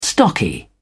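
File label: "stocky", English, British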